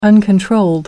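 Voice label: "uncontrolled", British English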